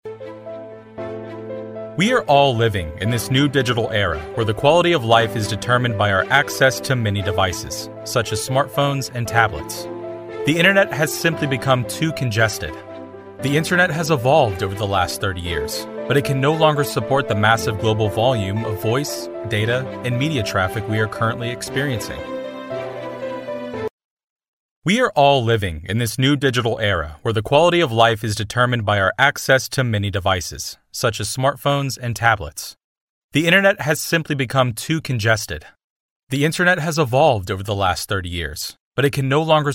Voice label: 外籍男英 外语 美式英语 商业宣传

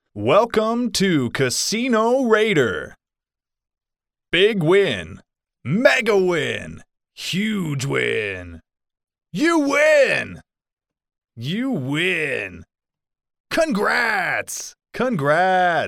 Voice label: 外籍男英 外语 美式英语 游戏

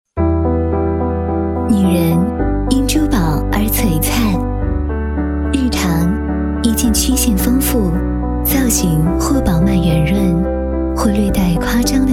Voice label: 专题 产品 优雅; 周大生

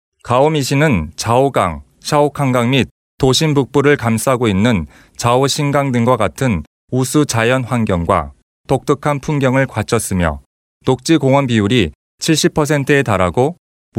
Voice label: 韩语男 外语 小语种 城市宣传片 浑厚

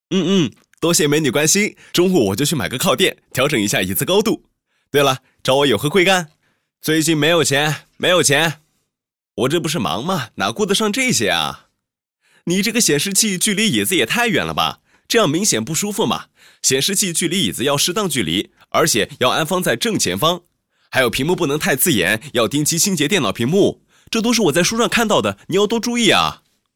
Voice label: 男国 动画 角色 年轻人

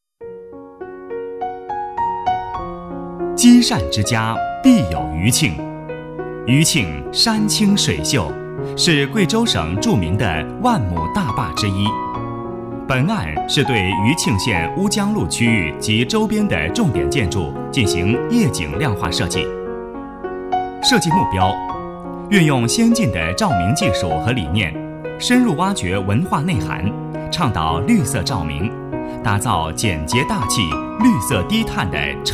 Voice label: 男 多媒体 项目规划; 建筑设计规划

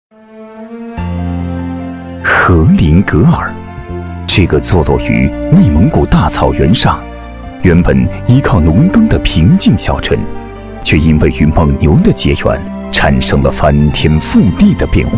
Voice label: 专题, 政府, 浑厚; 基地大区

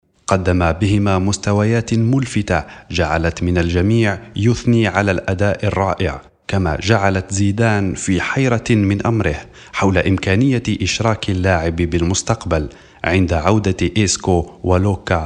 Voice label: 阿拉伯语男 外语 小语种

